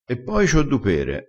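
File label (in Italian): "du", dialettale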